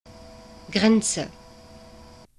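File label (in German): "grenze", German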